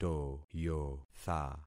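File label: "toyotha", concatenated syllables; Affixing experiment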